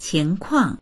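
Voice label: "qing2kuang4", Mandarin Chinese; 情况; 情況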